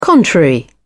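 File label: "contrary", British English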